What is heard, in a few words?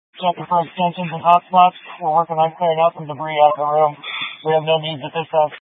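Incident Tactical, scanner audio recording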